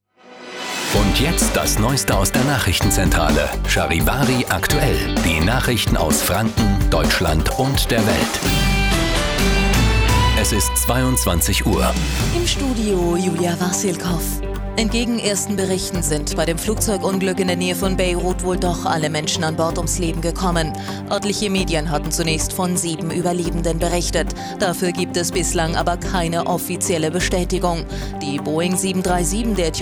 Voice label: News